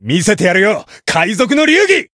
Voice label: variable chant jp; dennie